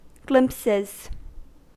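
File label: "glimpses", US English; pronunciations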